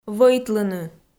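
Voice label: "вӧйтлыны", Komi, female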